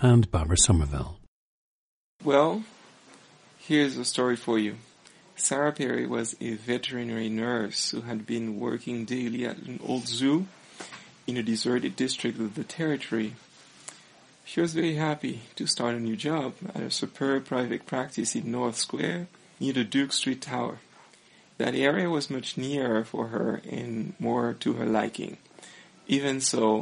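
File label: guadeloupe, International Dialects of English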